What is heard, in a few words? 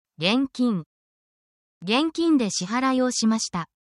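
Japanese word, essential Japanese phrases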